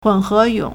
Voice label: "hun4 he2 yong3", Chinese